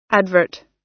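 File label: "advert", American English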